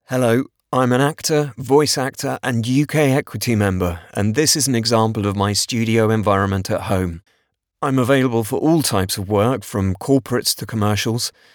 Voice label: Clean Studio Environment